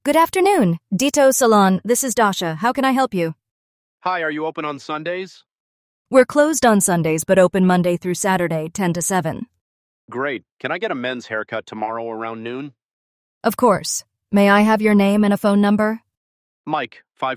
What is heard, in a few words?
call en; ai-receptionist